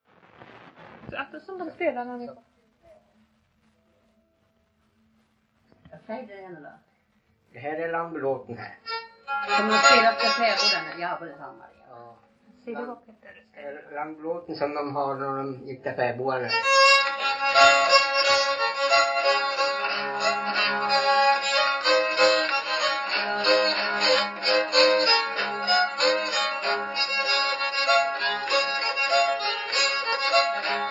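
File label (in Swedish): Hedesunda Gästrikland, svenska sagor dialekter; Folkminnen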